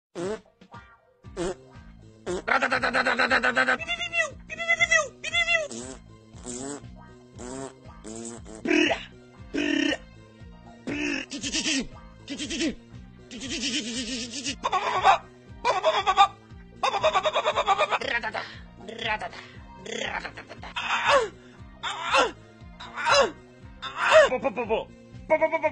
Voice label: Gun Range Voiceover

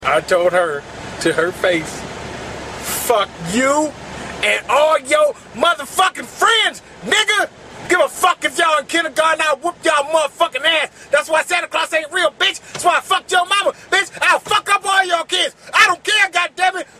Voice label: Yelling At A Kid

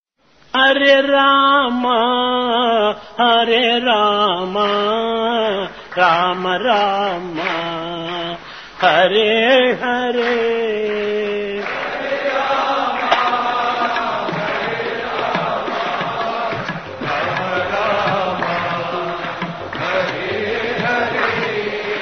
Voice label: Voice Of God

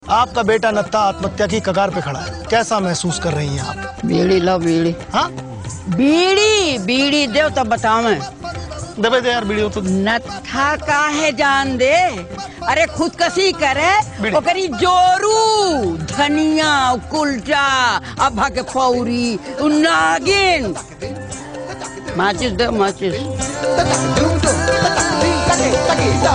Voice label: Dialog; Peepli Live

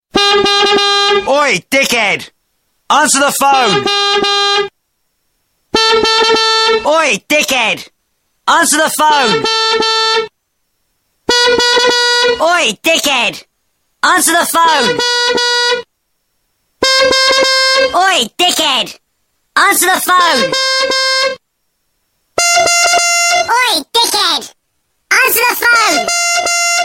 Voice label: Oiiiii Australian

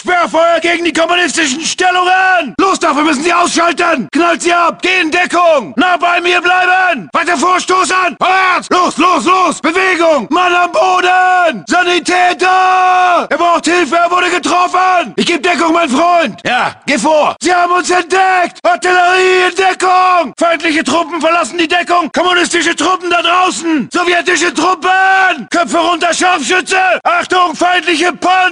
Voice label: Wehrmacht Voices

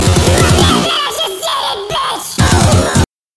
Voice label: Chipmunk

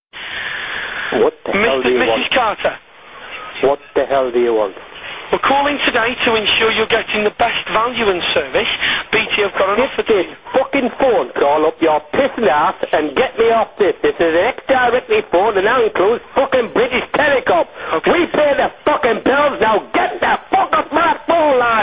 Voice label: Angry Caller

